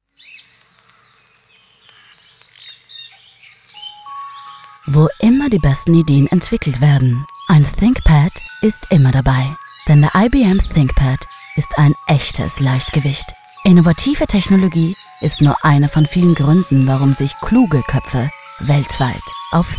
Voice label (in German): Werbung